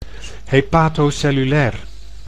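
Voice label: "hepatocellulair", Dutch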